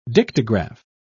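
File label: "dictograph", British English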